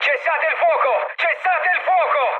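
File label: Italian player friendly fire mood med, speech; War Thunder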